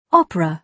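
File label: "opera", British English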